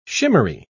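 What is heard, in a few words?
"shimmery", British English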